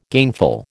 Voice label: "gainful", American